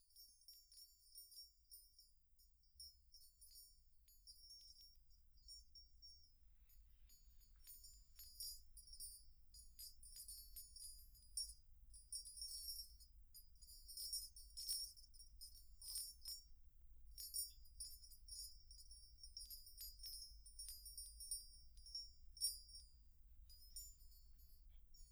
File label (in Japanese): ヒーリング オノマトペパート, ASMR; 06.連続ヒーリング オノマトペパート